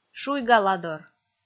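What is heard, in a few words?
"шуйгаладор", Komi, female; kpv audio dict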